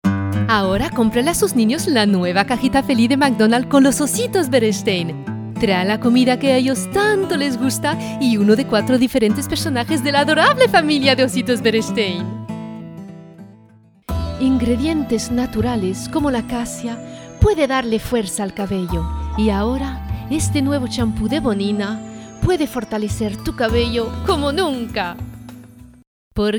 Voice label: female latino commercial